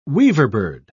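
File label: "weaverbird", British English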